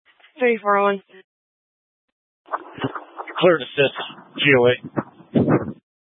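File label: Incident Tactical, scanner audio recording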